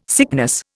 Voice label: "sickness", Indian

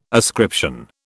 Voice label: "ascription", British

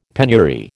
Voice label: "penury", American

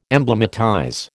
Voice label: "emblematize", American

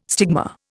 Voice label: "stigma", Indian

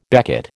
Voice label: "becket", American

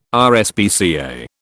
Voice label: "RSPCA", British

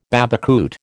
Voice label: "babacoote", American

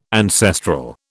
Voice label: "ancestral", British

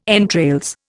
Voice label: "entrails", Indian

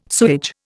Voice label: "sewage", Indian

